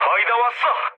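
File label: Korean near waypoint mood medium; speech; War Thunder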